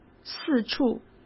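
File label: "四处", Mandarin Chinese; chinese flashcards; hsk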